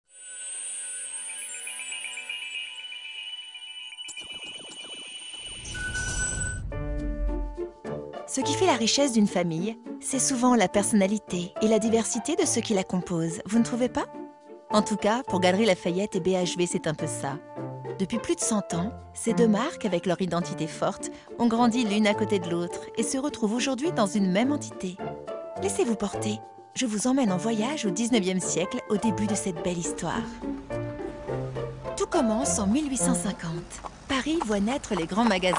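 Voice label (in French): Souriante tranquille, comédienne voix off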